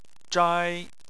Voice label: "zhai1", Chinese

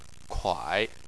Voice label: "kuai3", Chinese

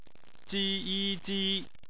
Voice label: "ji", Chinese; phonetics; bpmf

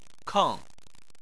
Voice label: "keng4", Chinese

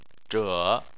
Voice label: "zhe3", Chinese

